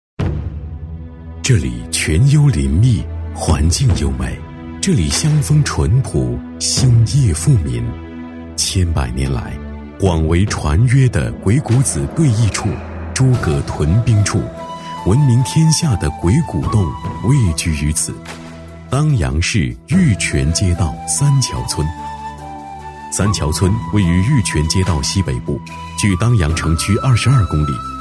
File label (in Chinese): 男 城市宣传 大气沉稳; 男70-大气主流 大气浑厚; 当阳市